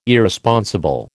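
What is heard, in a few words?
"Irresponsible", American English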